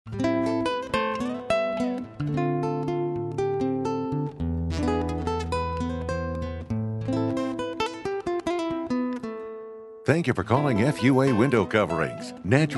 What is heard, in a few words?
On Hold Message